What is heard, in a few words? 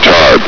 "tight", combine soldier; hl2